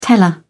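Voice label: "teller", British English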